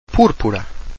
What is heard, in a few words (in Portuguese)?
"purpura", Portuguese